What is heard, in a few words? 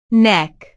"neck", ingles